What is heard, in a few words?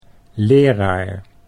"leraar", Dutch